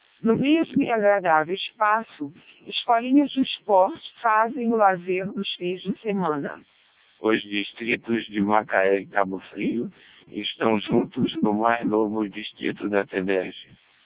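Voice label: Portuguese speech, CODEC2 vocoder; CODEC2 700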